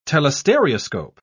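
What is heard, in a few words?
"telestereoscope", British English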